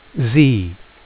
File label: name z, phonics